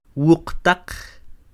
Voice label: "Wuqitaq", Quiché; de siete en siete; seven by seven; INALI; UTexas; CHQETA